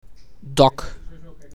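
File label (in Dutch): "Dak", Dutch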